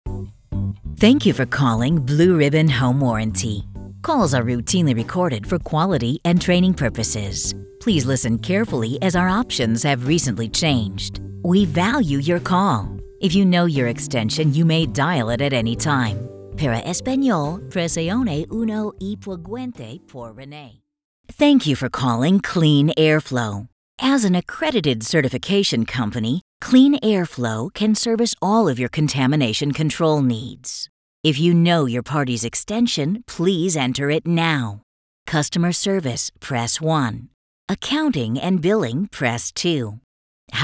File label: E Learning